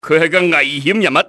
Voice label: "dangerous", cantonese male; XBOX; enemy